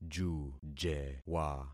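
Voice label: "jujewa", concatenated syllables; Affixing experiment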